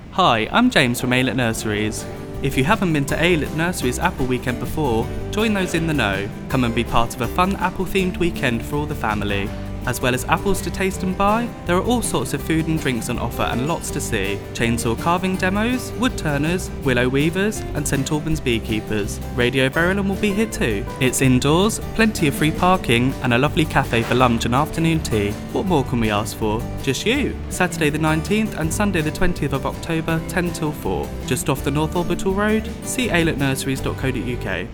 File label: advert; Aylett Nurseries